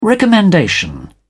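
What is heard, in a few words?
"recommendation", British English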